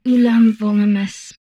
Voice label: humanoid female; Stellaris